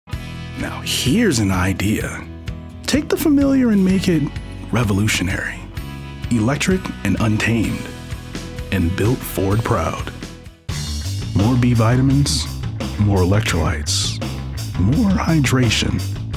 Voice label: Commercial, African- American Male Professional Voiceover; Commercial Demo (60 Second)